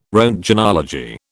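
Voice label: "roentgenology", British English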